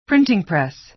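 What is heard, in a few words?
"printing press", American English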